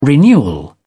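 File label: "renewal", English, British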